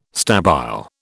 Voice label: "stabile", British

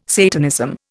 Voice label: "Satanism", Indian

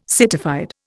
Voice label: "citified", Indian

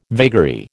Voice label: "vagary", American

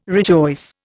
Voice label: "rejoice", Indian

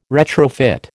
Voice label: "retrofit", American

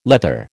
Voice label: "leather", American

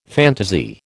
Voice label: "phantasy", American

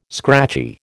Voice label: "scratchy", American

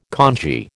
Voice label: "kanji", American

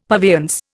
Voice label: "purveyance", Indian; Dictionary

